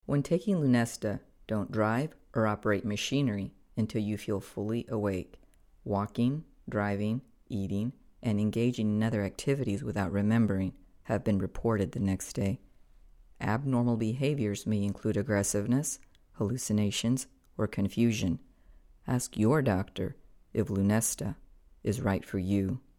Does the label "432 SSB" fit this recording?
No